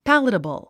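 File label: "palatable", English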